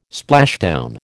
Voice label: "splashdown", American